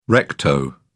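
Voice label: "recto", British English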